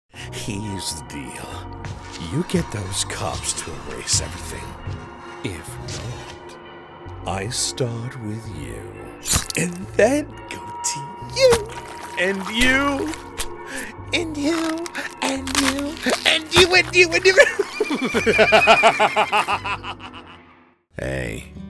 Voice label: Character